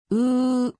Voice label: "う", 日本語; 基礎日語五十音聽力

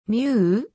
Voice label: "みゅ", 五十音基礎聽解題目

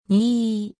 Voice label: "に", 日本語; 基礎日語五十音聽力

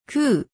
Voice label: "く", 日本語; 基礎日語五十音聽力

